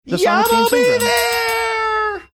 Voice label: saying yah mob there funny